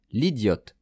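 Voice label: "lidiote", French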